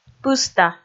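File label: "пыста", Komi, female; kpv audio dict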